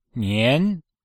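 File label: "nian2", Chinese; Cours-de-Chinois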